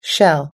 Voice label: "shell", US English